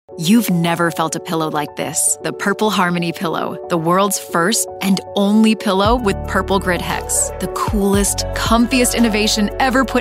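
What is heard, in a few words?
VO Commercial, Voiceover Female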